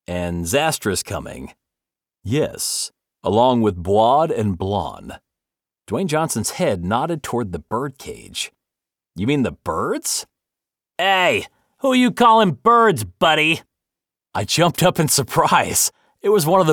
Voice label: Fiction Sci Fi Humorous